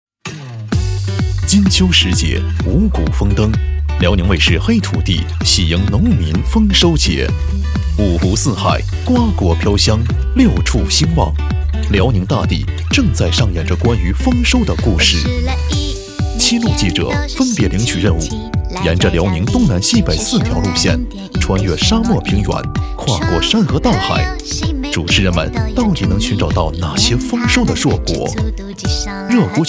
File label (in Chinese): 综艺节目 片花; 辽宁卫视黑土地特别节目2019